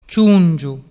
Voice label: "ciungiu", dialettu